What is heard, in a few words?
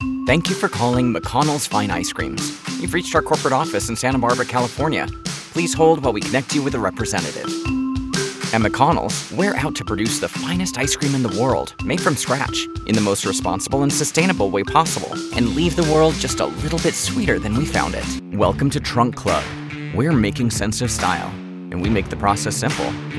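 interactive voice response, British English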